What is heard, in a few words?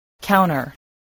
"counter", voice spelling